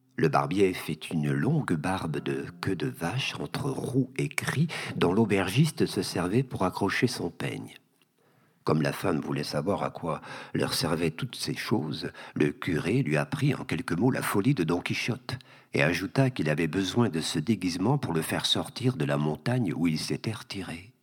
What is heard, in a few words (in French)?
voix GB; donquichotte; Acteur / Comédien